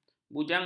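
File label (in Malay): "bujangga", Malay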